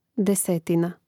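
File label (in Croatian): "desetina", Hrvatski; mreznik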